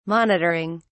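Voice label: "monitoring", English, British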